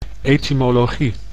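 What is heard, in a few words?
"etimologie", Dutch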